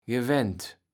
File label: "gewent", Kaevels Platt